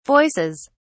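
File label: "voices", British English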